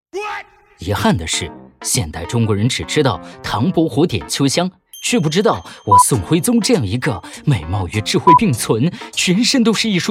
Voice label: 男,信息流,角色; 万万没想到

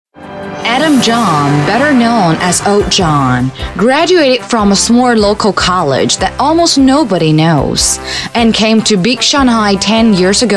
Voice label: 英女 大气昂扬语气

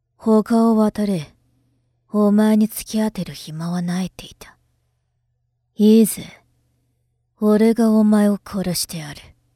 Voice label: 女 日语; 空之境界; 两仪式

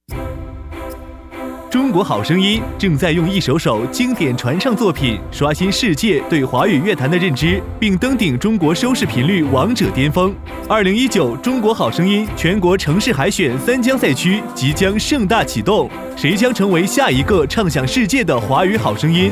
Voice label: 男 广告; 中国好声音

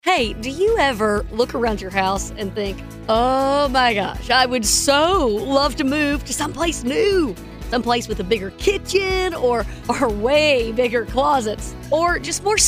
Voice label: 轻快自然

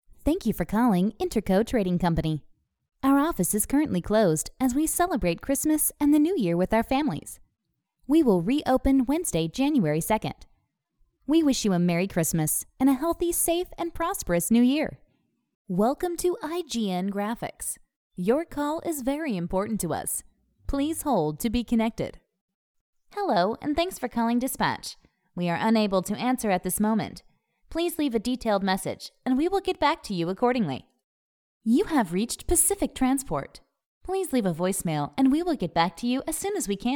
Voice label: 外籍英女 亲切专业 电话语音

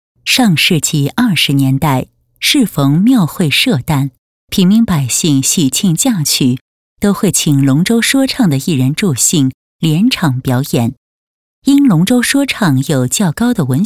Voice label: 专题 稳重大气; 龙舟说唱传承人

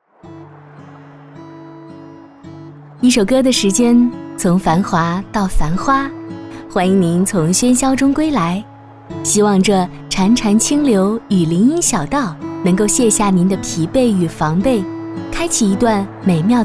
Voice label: 女 专题 房产项目园区导览

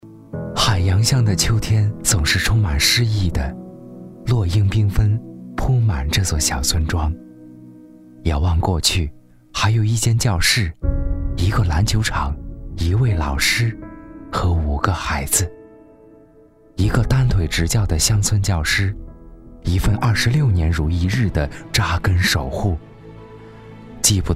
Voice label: 男, 纪录, 走心温暖; 乡村教师感人事迹

